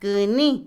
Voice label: molino, Otomí, mujer; Comida; INALI

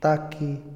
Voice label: "atacar", Otomí, hombre; INALI

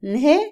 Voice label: esperanza, Otomí, mujer; Emociones; INALI

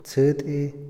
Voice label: "lanza", Otomí, hombre; INALI

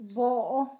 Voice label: carbón, Zapoteco, San Pedro Mártir; Objetos; INALI

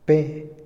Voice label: robar, Otomí, hombre; INALI

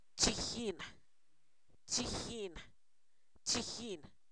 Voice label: miel, Zapoteco, san miguel albarradas; alimentos

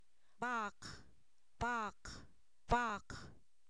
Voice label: vaca, Zapoteco, san miguel albarradas; animales; INALI

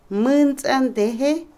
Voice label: marea, Otomí, mujer; Vocabulary; INALI

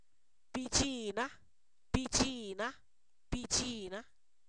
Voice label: vanado, Zapoteco; INALI

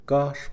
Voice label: "hablar", Mixe, hombre

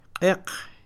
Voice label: negro, Mam; colores; INALI

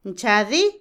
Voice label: estudiar, Otomí, mujer; INALI

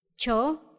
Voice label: "huevo", Mazateco; INAH; INALI

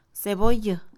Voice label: cebolla, Mixe, Mujer; INALI

